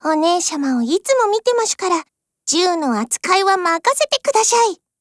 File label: DIALOGUE, JP